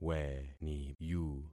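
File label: "weniyu", concatenated syllables; Affixing experiment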